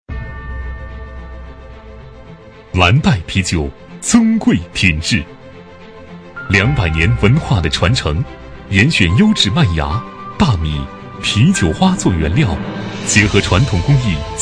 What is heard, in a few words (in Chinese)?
男 广告 啤酒; 蓝带啤酒